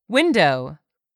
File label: "window", English word; 3000 words